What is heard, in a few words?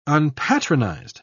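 "unpatronized", British English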